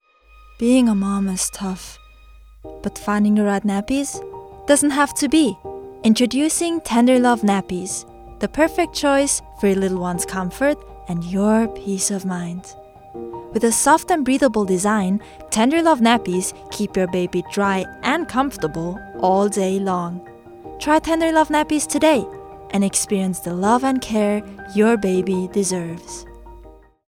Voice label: Commercial Voicereel